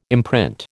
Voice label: "imprint", American